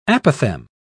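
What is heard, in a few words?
"apothem", British English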